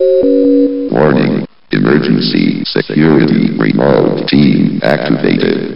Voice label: grunts announcement; sven; vox